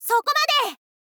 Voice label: "soko made", info girl voice